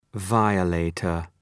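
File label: "violator", English word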